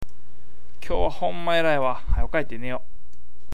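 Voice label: "erai", Awaji dialect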